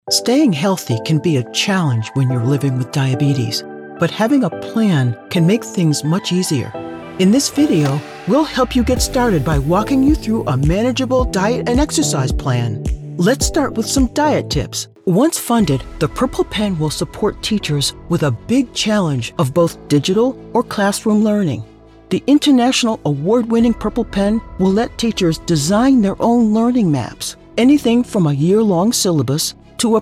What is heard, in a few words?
Explainer